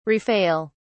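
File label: "rafale", British English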